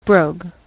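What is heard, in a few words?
"brogue", US English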